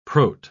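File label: "prot-", British English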